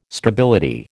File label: "stability", American